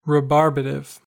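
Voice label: "rebarbative", US English; pronunciations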